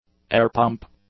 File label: "airpump", English; nouns